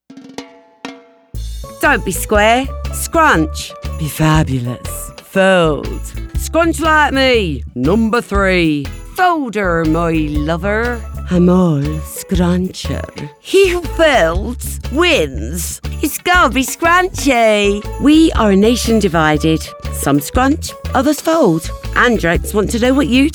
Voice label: English Character Commercial

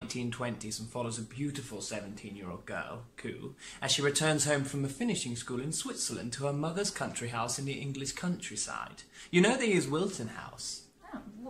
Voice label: RP Heightened

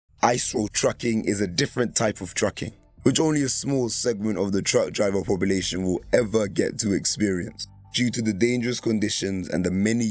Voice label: Documentary

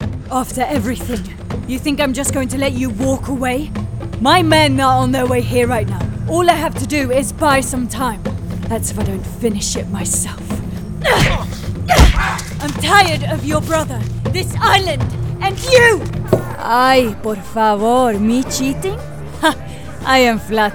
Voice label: Animation, Swedish

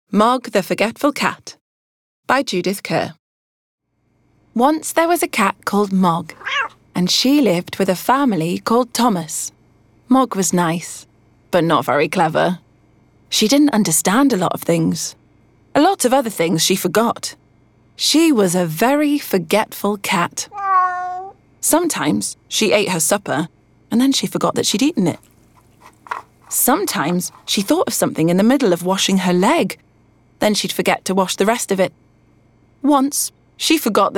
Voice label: childrens audiobook

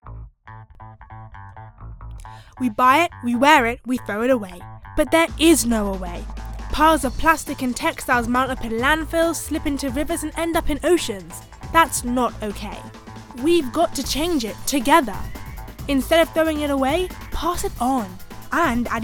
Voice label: Narration; Adidas

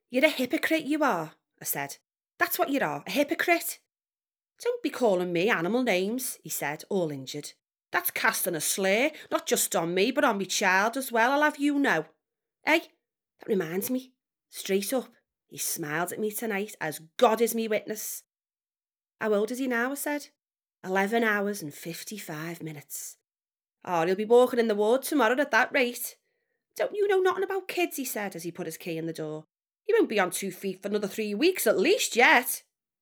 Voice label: infomercials, voiceover

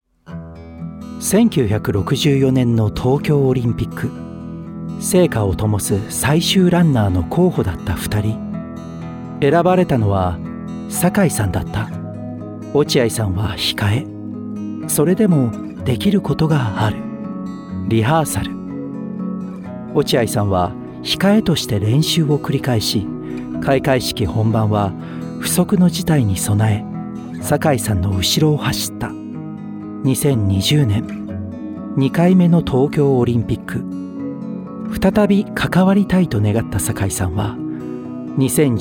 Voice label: Documentary Japanese; Tokyo Olympics

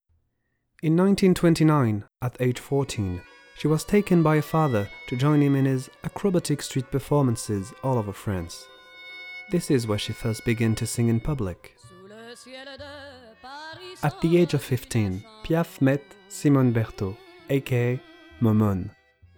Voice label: Soft French Accent English Documentary

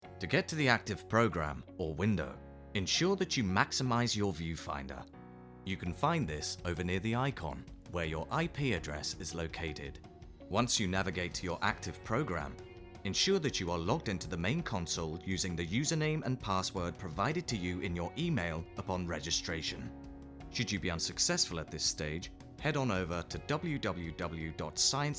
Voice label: Corporate

